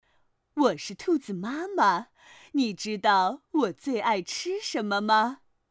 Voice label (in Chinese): 课件成女温柔稳重